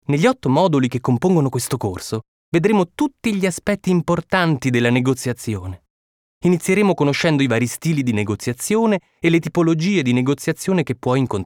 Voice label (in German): it elearning, italienisch